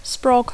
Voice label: "sprog", English; Dacco